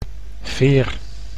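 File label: "veer", Dutch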